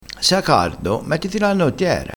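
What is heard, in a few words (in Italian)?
"cannottiera", vocabolario dialettale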